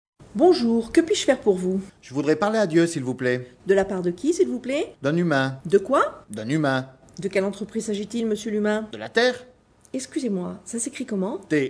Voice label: Convers French